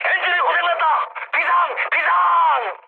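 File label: Korean damaged engine mood high; War Thunder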